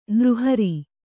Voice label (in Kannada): "ನಿರಾಹಾರ", Kannada